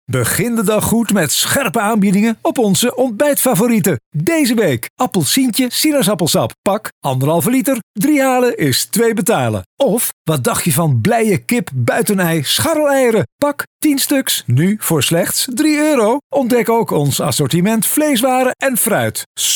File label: Casual Conversational